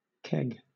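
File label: "keg", British English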